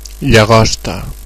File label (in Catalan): grasshopper desc, ca; insects; tuxpaint stamps; animals